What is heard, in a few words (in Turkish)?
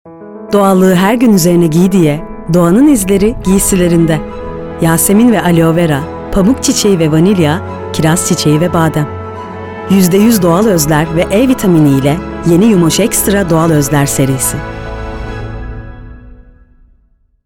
reklam; yumos